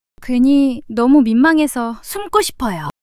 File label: shy; monologue